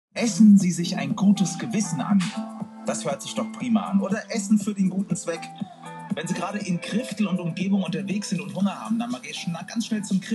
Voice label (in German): AUDIO Werbung fuer Wuerstchen